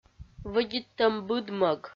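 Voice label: "вӧдиттӧм быдмӧг", Komi, female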